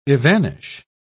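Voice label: "evanish", British English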